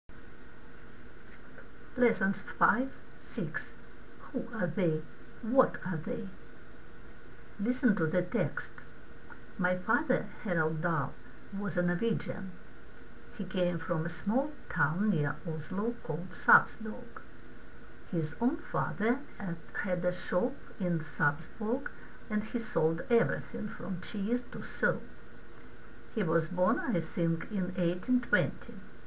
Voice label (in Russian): Чтение текста; 6 form